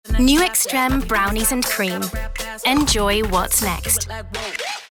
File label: Voice Clip Commercial Extreme icecream contemporary youthful short; LOFT VOICES